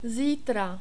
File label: "zitra", czech